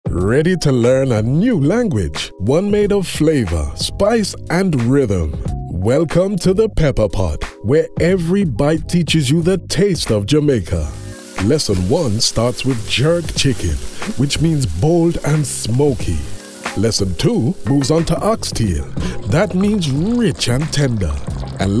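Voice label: Jamaican, male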